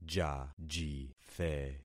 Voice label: "jajithe", concat syllables; Affixing experiment

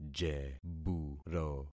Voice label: "jeburo", concat syllables; Affixing experiment

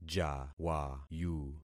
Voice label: "jawayu", concat syllables; Affixing experiment

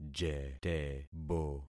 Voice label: "jetebo", concat syllables; Affixing experiment

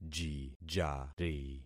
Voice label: "jijari", concat syllables; Affixing experiment

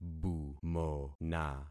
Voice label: "bumona", concat syllables; Affixing experiment

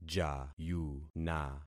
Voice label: "jayuna", concat syllables; Affixing experiment

